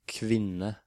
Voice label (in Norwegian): "Kvinne", Norwegian